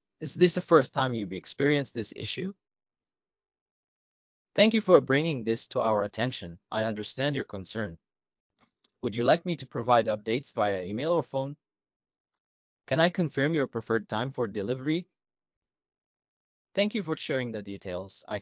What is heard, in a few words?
after V3, accent conversion; Krisp; after V2